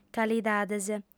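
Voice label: "calidades", female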